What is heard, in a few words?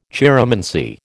"chiromancy", American